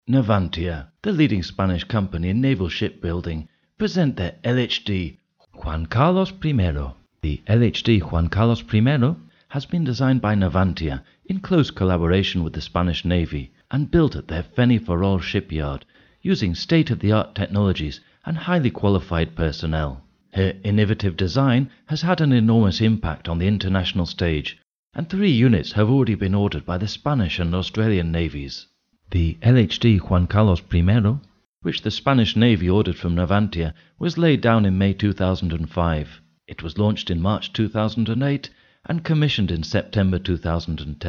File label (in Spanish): ingles locutor britanico video corporativo; madrid; Daplay Navantia